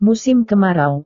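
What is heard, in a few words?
"musim kemarau", Indonesian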